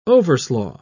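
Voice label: "overslaugh", English, British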